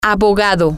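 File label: "lawyer", Spanish, female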